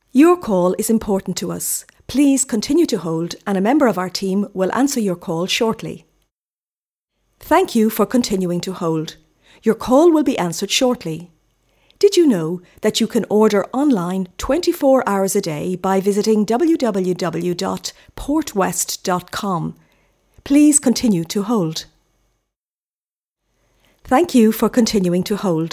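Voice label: English Irish female IVR; accent of ireland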